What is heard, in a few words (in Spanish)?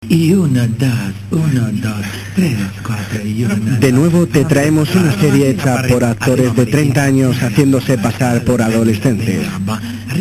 Anuncio; Chupa Dance